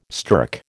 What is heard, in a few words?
"stirk", American